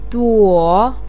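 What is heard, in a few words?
"duo" third tone, Mandarin Chinese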